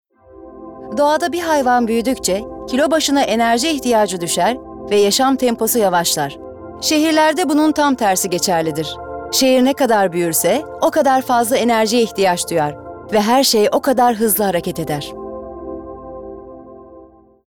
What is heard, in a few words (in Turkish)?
Belgesel